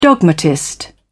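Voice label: "dogmatist", British English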